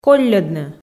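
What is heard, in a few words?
"колльӧдны", Komi, female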